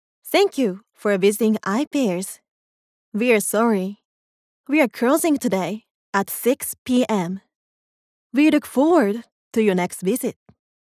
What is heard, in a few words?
en